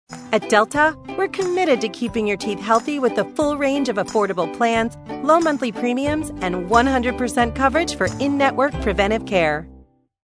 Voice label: Commercial; Delta Dental